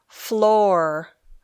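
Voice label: "floor", English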